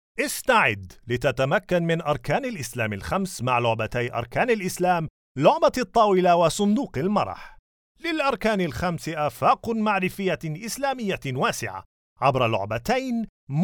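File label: male Arabic e-learning; voice demos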